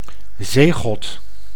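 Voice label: "zeegod", Dutch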